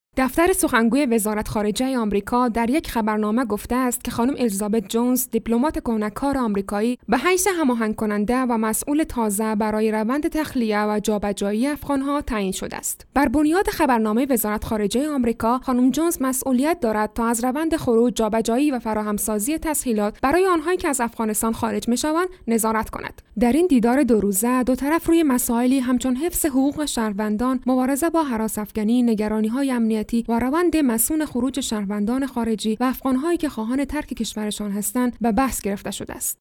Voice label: Dari News, Female, Adult, Dari